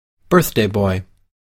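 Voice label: "birthday boy", English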